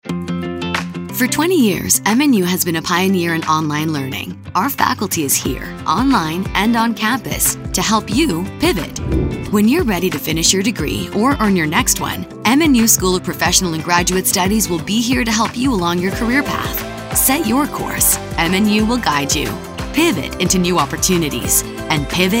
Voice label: Spring Open House Radio; MNU